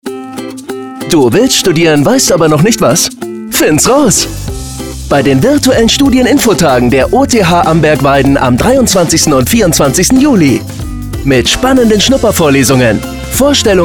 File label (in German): Funkspot; OTH Amberg Weiden; virtuellen Studieninfotage